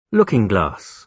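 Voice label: "looking glass", British English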